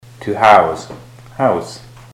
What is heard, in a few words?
to house house, ontario